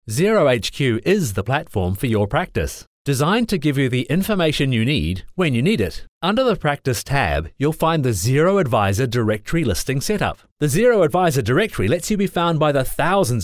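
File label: Australian English, Commercial; GoLocalise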